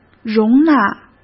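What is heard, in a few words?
"容纳", Mandarin Chinese; hsk; chinese-flashcards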